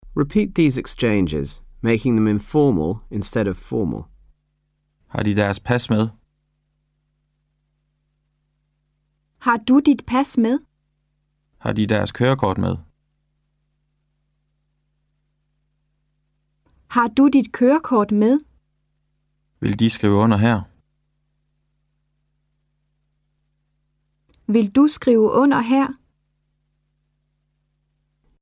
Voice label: Informal Formal, Danish; Colloquial Danish 1; Unit 3